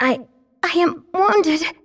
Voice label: Female; baldurs1